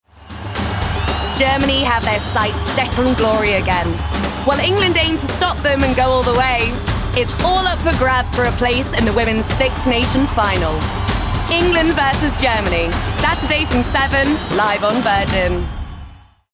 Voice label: Promo, Cool, Energetic, Confident, RP ('Received Pronunciation'); Rugby World Cup